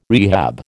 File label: "rehab", American